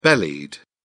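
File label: "bellied", British English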